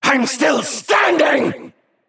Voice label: Male Naga Attack